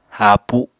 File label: "haapu", Apatani